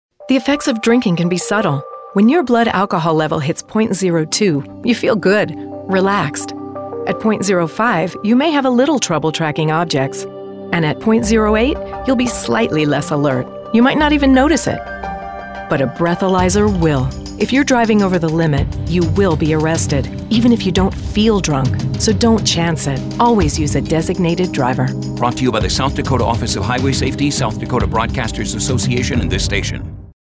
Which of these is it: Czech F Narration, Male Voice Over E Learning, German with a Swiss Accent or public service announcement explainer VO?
public service announcement explainer VO